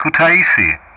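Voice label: "Kutaisi", English; Ka-50; Player Callsign; DCS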